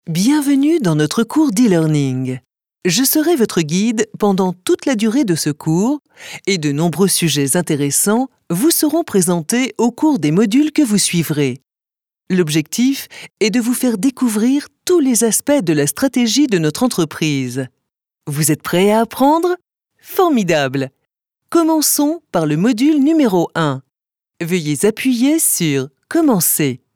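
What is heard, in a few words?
French elearning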